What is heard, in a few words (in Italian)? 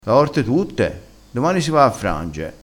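"frange", vocabolario dialettale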